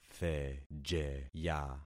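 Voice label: "thejeya", concatenated syllables; Affixing experiment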